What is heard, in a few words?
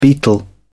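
"betel", British English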